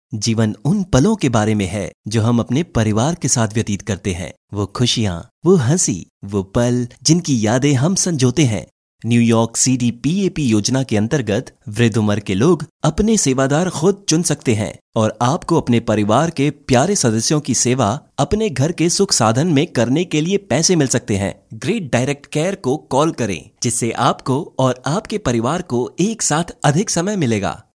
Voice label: male hinglish voice over talent narrative

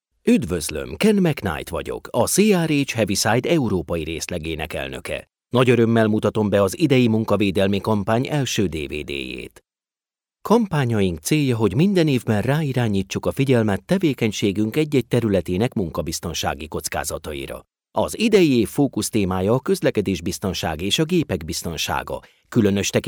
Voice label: male hungarian voice over talent narrative

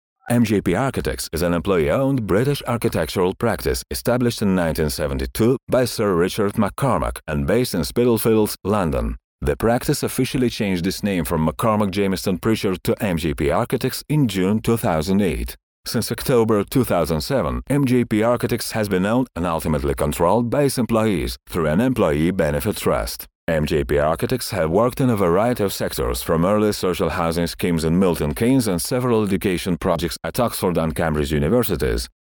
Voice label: male russian voice over talent english

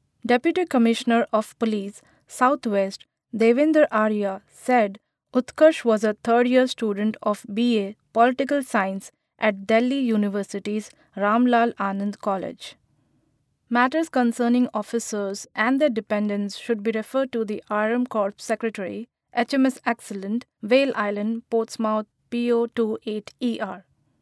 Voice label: female indian voice over narrative

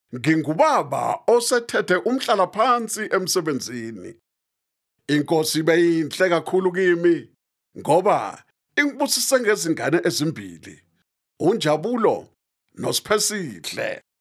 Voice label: male zulu voice over talent narrative

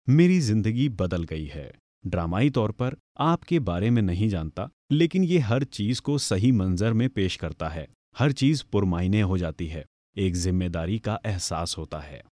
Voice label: male urdu voice over talent narrative

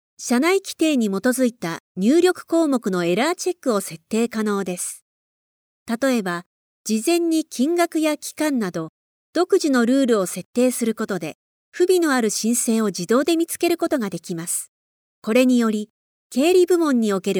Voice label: female japanese voice over narrative

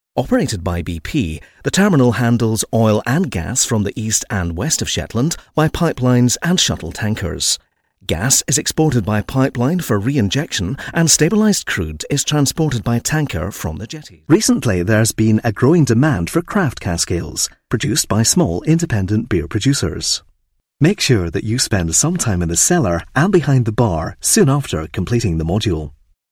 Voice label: male scottish voice over talent narrative

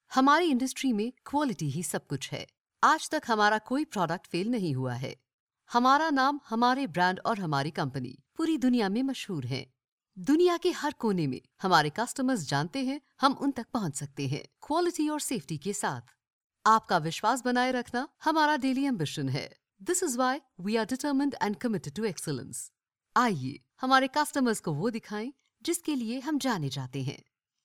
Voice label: female hinglish voice over narrative

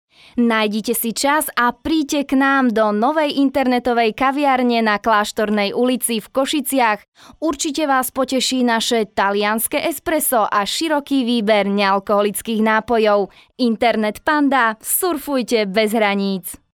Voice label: female slovakian voice over sales

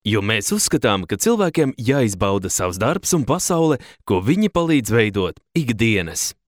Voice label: male latvian voice over talent narrative

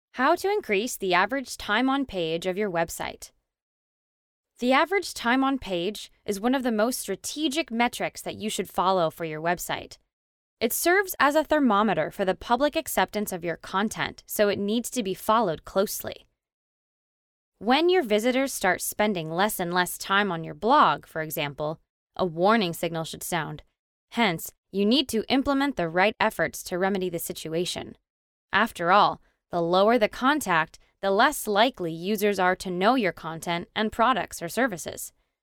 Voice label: female american voice over narrative

